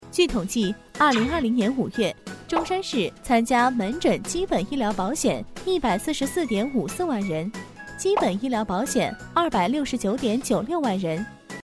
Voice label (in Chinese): 新闻播报女声